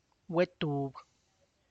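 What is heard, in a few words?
tlacochahuaya, Zapotec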